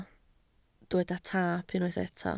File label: "taap", Welsh